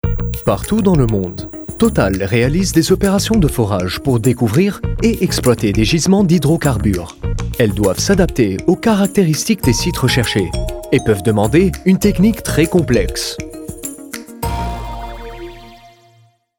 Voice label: french others